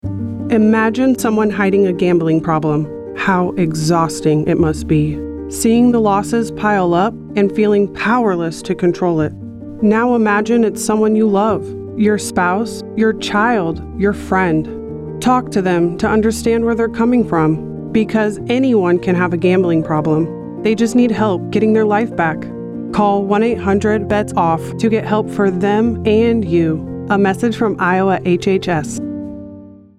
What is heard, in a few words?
Female